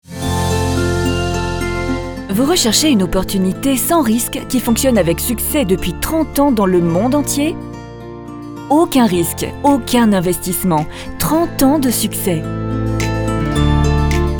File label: business, FR; DXN